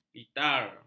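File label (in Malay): "litar", Malay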